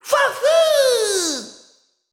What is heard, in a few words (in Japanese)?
VO jp